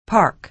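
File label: "park", American English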